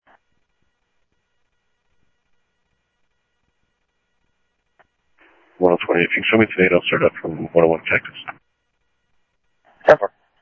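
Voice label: police department, scanner audio trunk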